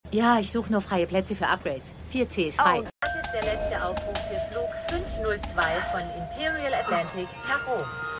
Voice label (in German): Gate Agent, Stimmproben; The Flight Attendant